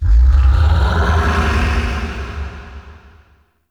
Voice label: Weather Angry Voice; Ambience; Gameplay; Warframe; Duviri